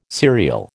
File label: "serial", American